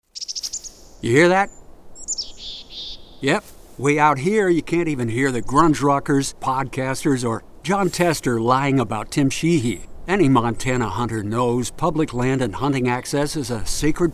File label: radio mix; NRA MT Bugle